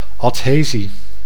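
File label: "adhesie", Dutch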